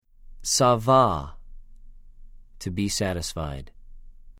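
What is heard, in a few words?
"שָׂבַע", Hebrew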